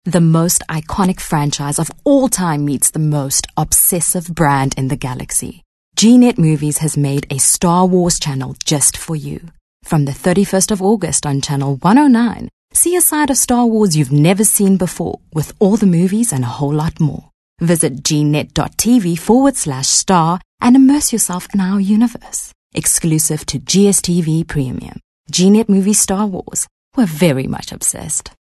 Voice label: English Skill Promo